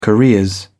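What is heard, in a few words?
"careers", British English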